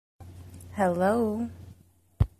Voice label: female voice saying hello